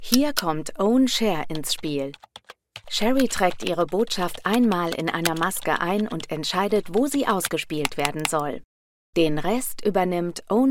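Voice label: german explainer videos, Female; professional German voiceover artist and voice actor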